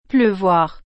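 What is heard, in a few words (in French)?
"pleuvoir", French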